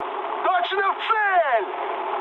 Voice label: bomb success, mood high, speech ru; War Thunder

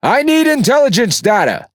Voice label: Speech Quick Commands Need Scouting, EN; World Of Warships

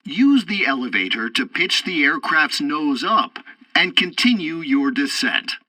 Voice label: landing near airfield, tutorial, english; War Thunder

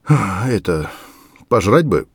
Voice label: mutter, voice; Escape from Tarkov

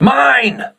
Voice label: voice message mine, crew ships english

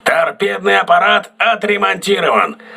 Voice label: voice message tt repaired, russian

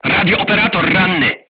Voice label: voice message machine gunner wounded, polish

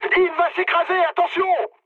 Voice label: aircraft destroyed mood med, speech fr; War Thunder

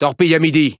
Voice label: Fr Speech Alarms Torpedo Ahead; World Of Warships

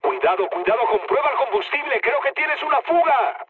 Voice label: tank damaged, mood med, speech; War Thunder

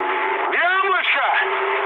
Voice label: bomb success, mood low, speech ru; War Thunder

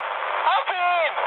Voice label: attack position, mood high, speech ge

